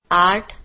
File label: "8", Marathi